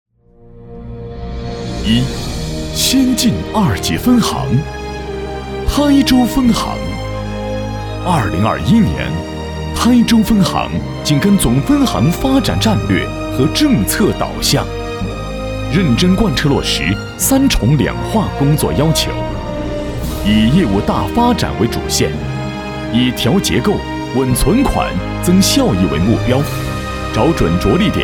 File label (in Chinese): 颁奖词专业配音